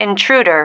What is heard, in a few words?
"intruder", female